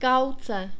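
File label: "kauce", czech, male; diphthongs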